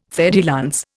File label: "fer de lance", Indian